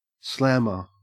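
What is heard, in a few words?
"slammer" English, Australian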